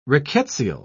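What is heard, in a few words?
"rickettsial", British English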